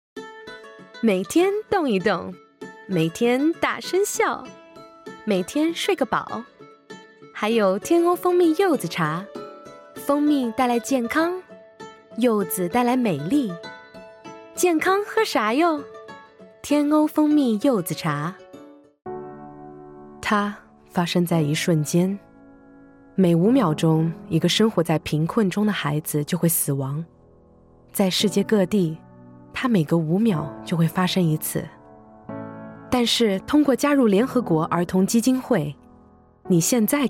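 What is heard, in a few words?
Chinese, General; Golocalise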